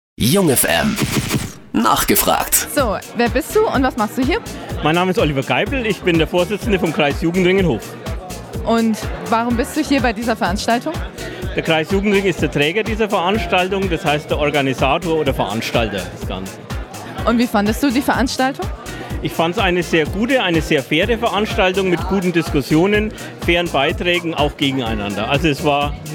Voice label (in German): Interview mit Jingle und Musik; KJR Hof